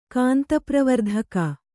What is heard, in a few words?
"ಕಾಂತಪ್ರವರ್ಧಕ", Kannada